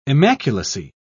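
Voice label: "immaculacy", British English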